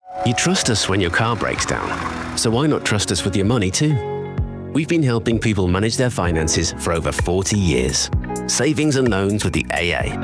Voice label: commercial

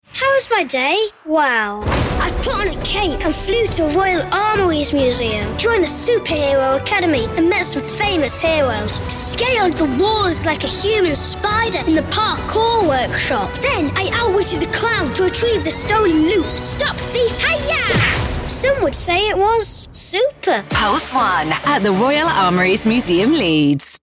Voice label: radio ad; conson 2024

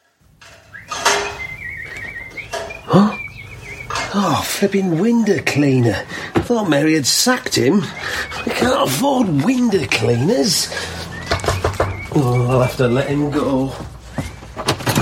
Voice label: radio drama; bbc radio drama

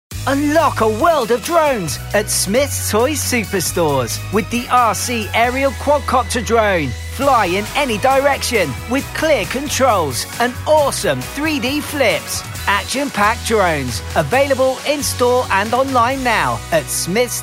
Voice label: children's commercials, Natural Young Male Voice

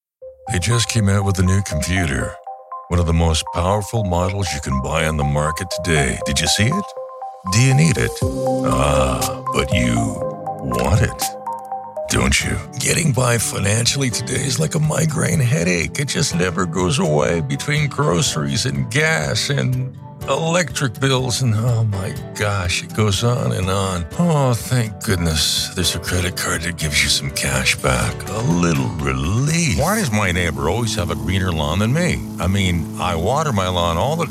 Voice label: american male commercial

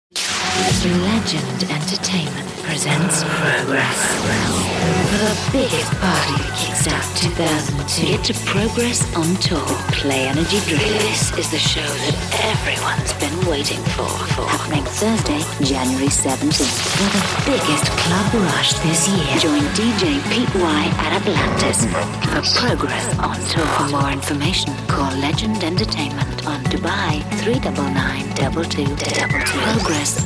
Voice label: continuity radio

